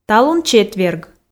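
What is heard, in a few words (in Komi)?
талун четверг, Komi, female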